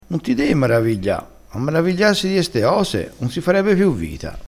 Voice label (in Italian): "maravigliassi", crespinese, vocabolario dialettale